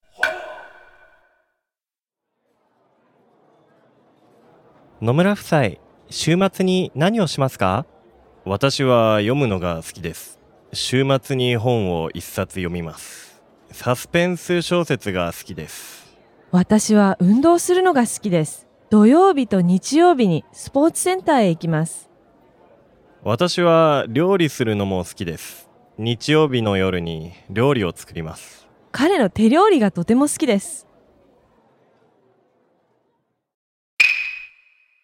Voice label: dialog; JapanesePod101; Beginner Season 1